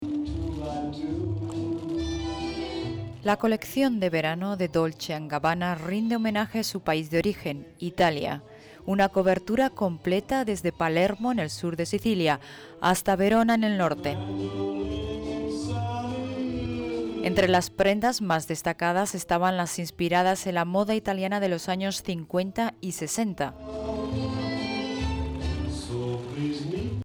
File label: Spanish TV shows